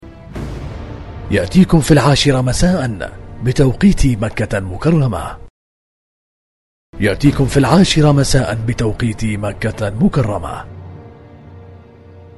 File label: Arabic Narration Voice Overs